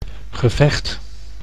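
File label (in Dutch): "gevecht", Dutch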